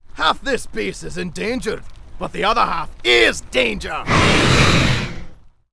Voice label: Angry; warcraft3; Gryphon Rider